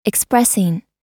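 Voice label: "expressing", American English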